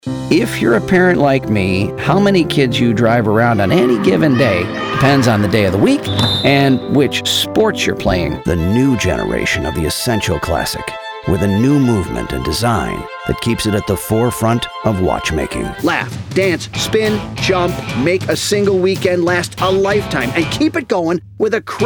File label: commercial